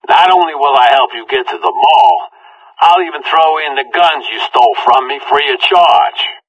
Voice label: conversation; Left 4 Dead 2